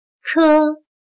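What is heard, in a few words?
"颗", Chinese; 颗/kē/Clasificador; algo pequeño y redondo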